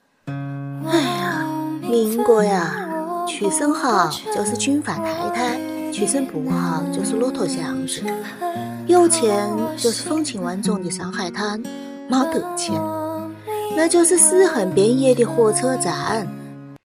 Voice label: 方言配音民国

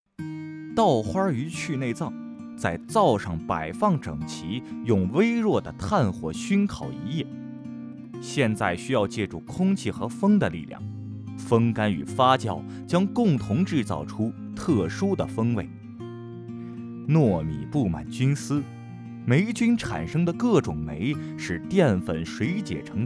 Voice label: 轻快男; 稻花鱼

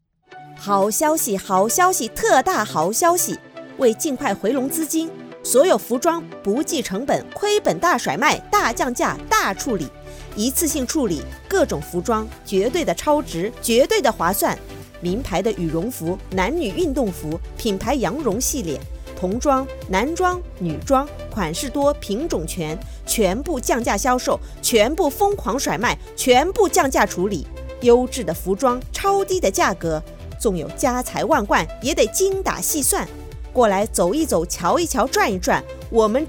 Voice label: 促销广告

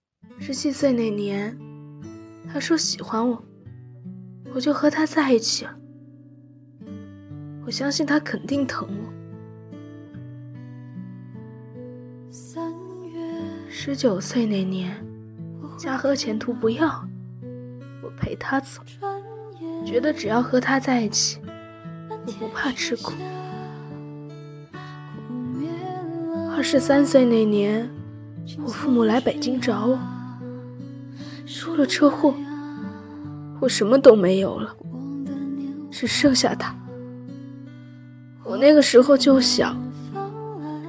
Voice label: 旁白

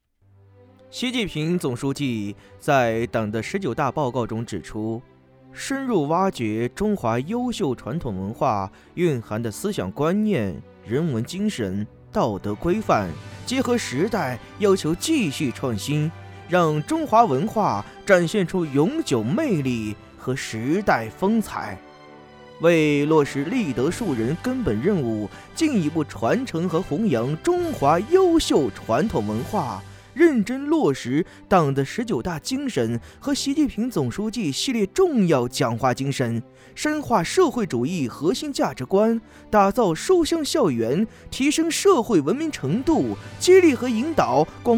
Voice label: 学校宣传片

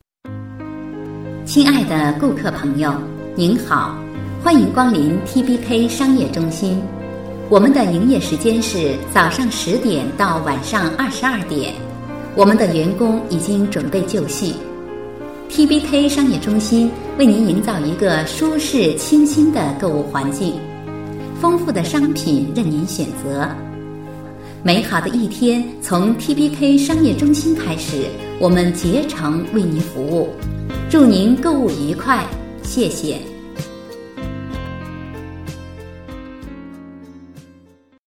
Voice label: 商场宣传优雅甜美

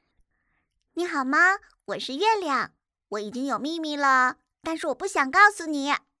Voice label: 女童; 声展女童3月亮

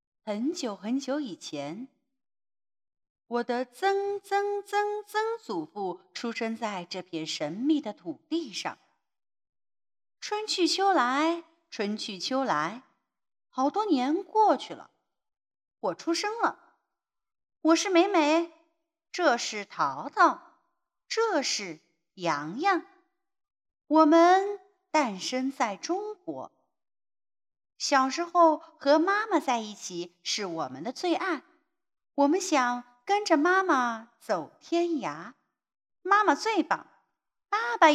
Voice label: 纪录片熊猫 配音干音

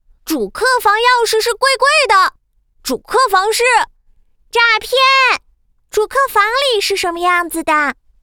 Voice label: 角色 男童 女童; 波力海苔回忆杀

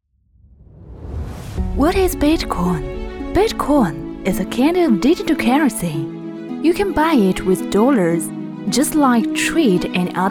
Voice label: 英语 明媚

